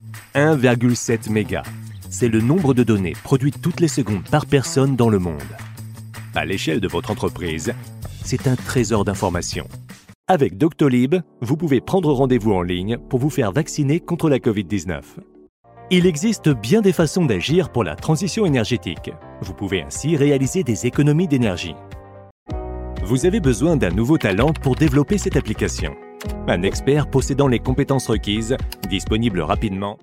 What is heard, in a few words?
French explainer videos